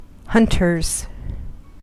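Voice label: "hunters", US English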